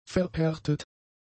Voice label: "verhärtet", German; adjectives